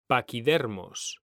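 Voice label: "paquidermos", Spanish; mekanta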